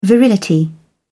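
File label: "virility", English, British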